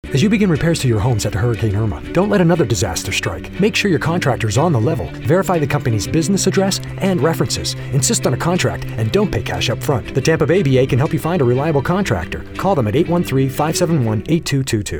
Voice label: PSA; Rebuild; Tampa Bay